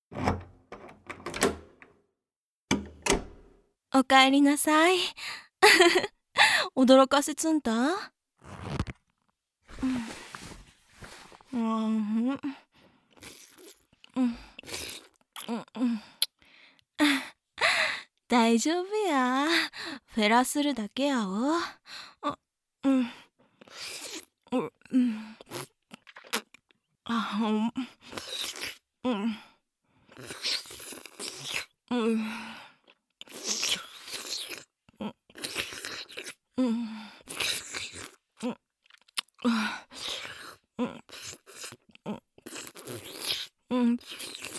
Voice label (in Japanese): 福井弁; 玄関あけて９秒で即尺からのおねだりクパァ; ヤンデレ痴女